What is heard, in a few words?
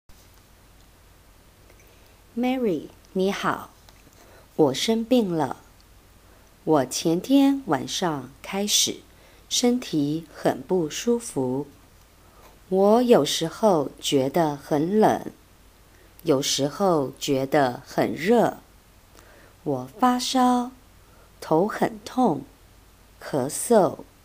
reading comp exercise recording